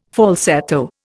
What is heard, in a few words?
"falsetto", Indian